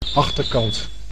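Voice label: "achterkant", Dutch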